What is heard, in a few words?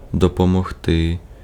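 Ukrainian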